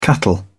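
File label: "cattle", British English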